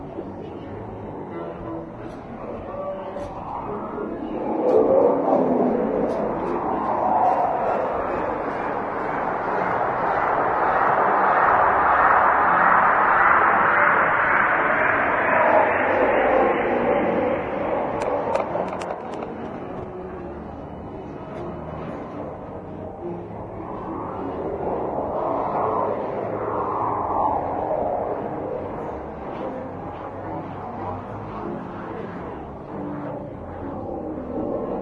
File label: citadel ambient voices; Garry's Mod; hl2